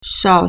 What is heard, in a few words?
"shao3", Chinese